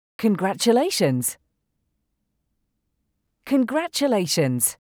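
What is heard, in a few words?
Congratulations, English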